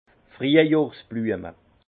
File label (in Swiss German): "friejjohrsblueme", Alsace; fleurs printanières; vin